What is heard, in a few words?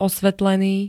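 "osvetlený", Slovak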